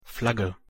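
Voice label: "Flagge", German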